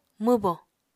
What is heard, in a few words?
"mwbo", Apatani